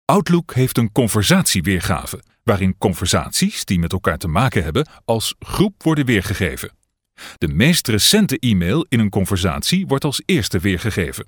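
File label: Dutch, male, narration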